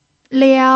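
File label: "liao", Mandarin Chinese pinyin, tone 1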